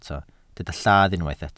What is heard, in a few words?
"lladd", welsh